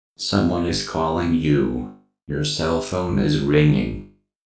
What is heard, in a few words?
Robot Calling